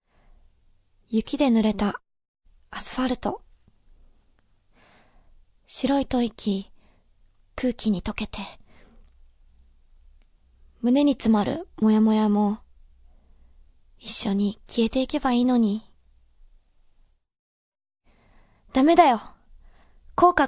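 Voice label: Narration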